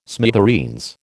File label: "smithereens", American